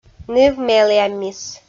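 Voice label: "ныв меліаммис", Komi, female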